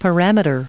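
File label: "parameters", us English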